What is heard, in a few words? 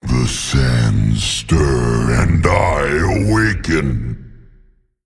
Male Colossus Play